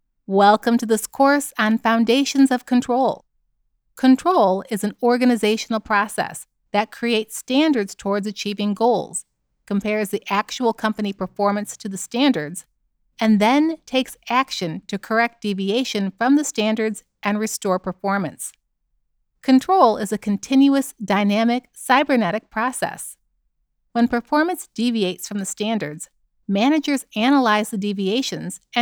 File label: Presentation Educational Professional Informative